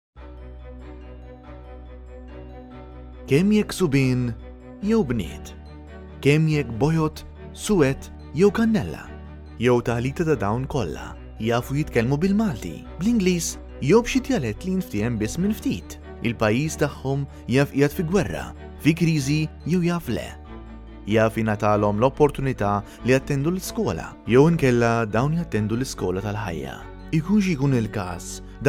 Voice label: Narration Maltese; Narration Demo